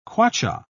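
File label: "kwacha", British English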